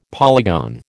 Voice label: "polygon", American

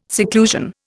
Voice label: "seclusion", Indian

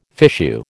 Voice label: "fichu", American

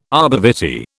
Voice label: "arbor vitae", British

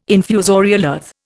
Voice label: "infusorial earth", Indian

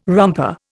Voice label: "romper", Indian

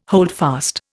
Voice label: "holdfast", Indian

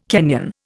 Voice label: "Kenyan", Indian

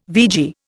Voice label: "vegie", Indian

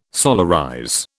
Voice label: "solarize", British

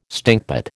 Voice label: "stinkpot", American

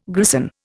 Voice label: "grison", Indian

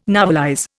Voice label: "novelize", Indian

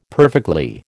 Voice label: "perfectly", American

